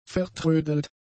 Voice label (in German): "vertrödelt", German; adjectives